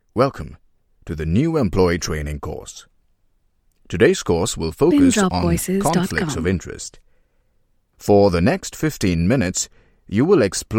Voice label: English

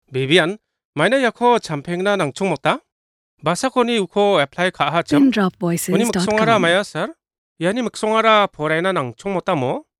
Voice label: Garo

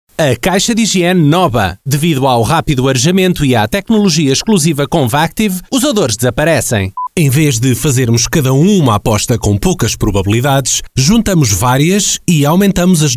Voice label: Portuguese

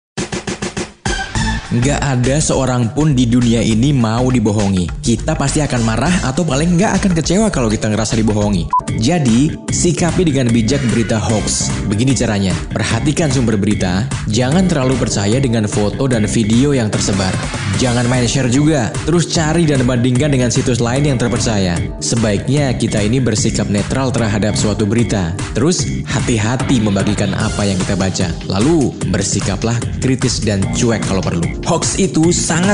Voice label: Indonesian Bahasa

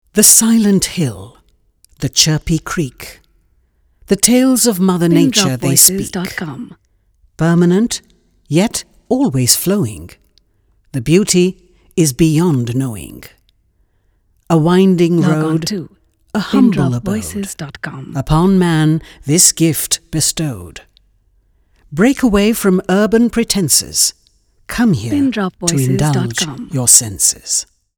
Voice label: English

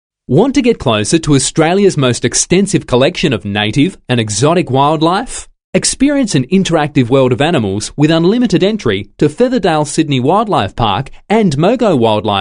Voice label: English AU

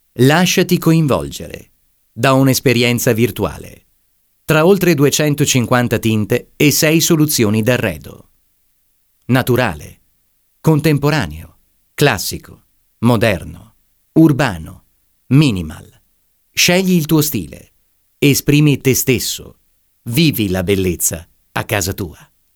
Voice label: Italian

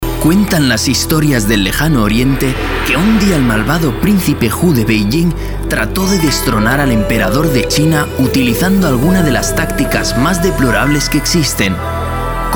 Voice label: Spanish

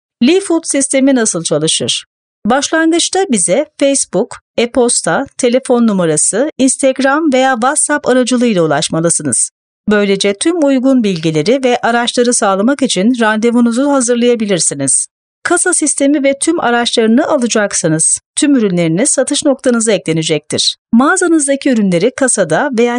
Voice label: Turkish

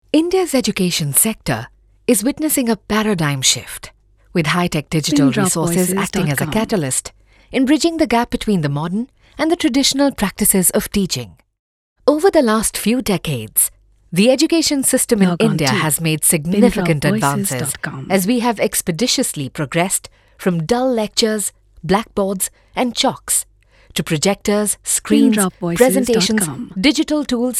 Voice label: English

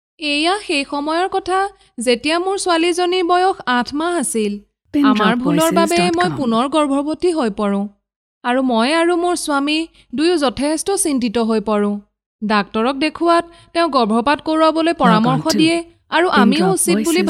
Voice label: Assamese

